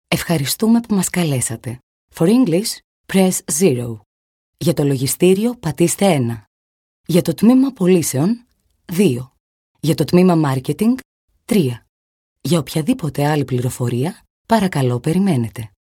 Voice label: FEMALE VOICE